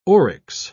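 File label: "oryx", British English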